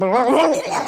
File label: jibberish